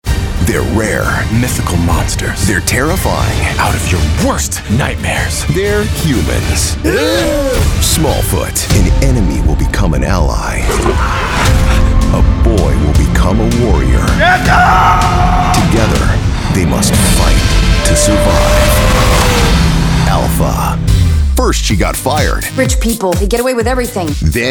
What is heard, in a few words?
TRAILER LIGHT ANIMATED ROMANCE FAMILY COMEDY